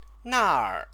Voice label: "naer", Chinese